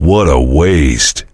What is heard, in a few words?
heavy negative vocalization; tf; duke